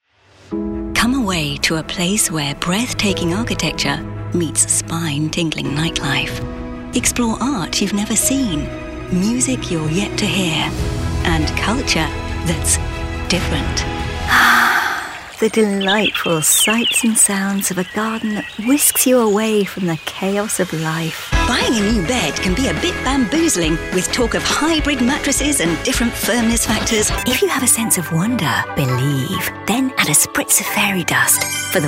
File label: Radio Commercial Imaging